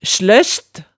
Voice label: "sløst", hemsedalsmaalet

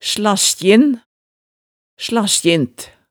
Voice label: "slaskjin", hemsedalsmaalet

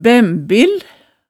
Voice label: "bæmbil", hemsedalsmaalet tale

